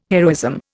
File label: "heroism", Indian